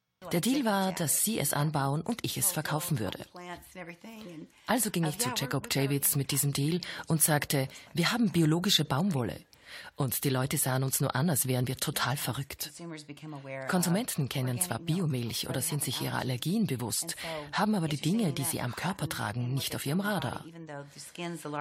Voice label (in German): dok film Voiceover; ORF